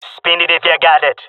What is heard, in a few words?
request spend, jungle male; agents